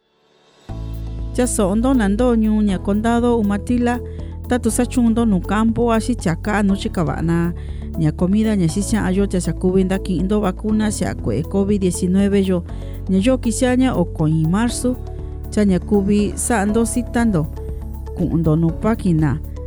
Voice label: Mixteco Bajo; Umatilla; Vaccine Radio Spots; covid19